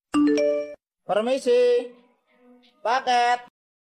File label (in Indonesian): nada notifikasi permisi paket